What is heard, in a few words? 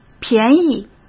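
"便宜", Mandarin Chinese; hsk; chinese-flashcards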